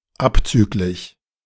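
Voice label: "abzgl.", German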